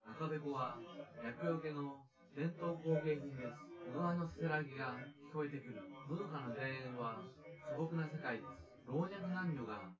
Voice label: male synth conv; SiSEC10; underdetermined